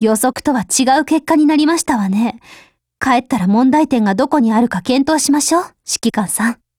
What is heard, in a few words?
RETREAT, JP; 92typeMod